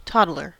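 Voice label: "toddler", US English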